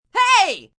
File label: Hey F, female; Calls, attention; VoiceBox